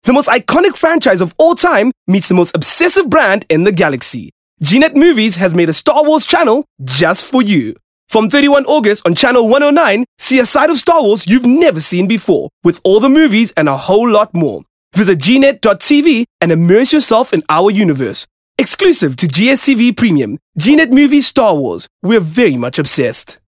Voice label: English Promo